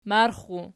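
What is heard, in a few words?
"marxxu" nominative, Archi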